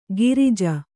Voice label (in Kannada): "ಗಿರಿಜ", Kannada